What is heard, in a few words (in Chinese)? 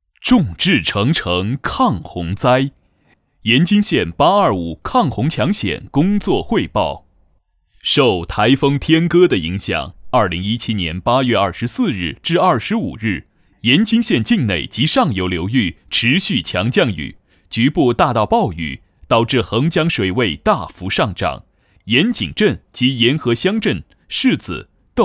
男-工作汇报; 抗洪抢险